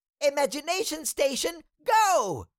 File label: Cartoon little child voice, "imagination station go"